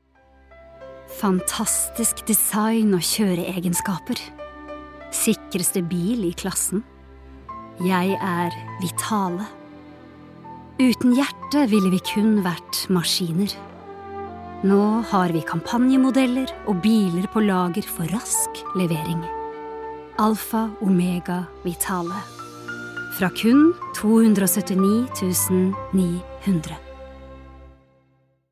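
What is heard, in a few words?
Norwegian soft sell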